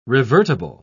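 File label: "revertible", British English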